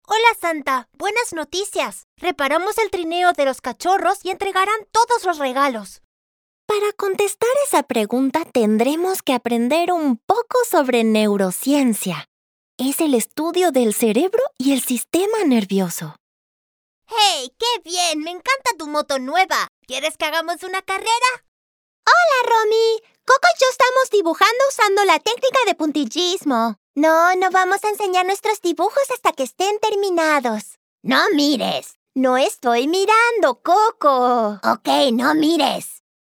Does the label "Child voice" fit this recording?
Yes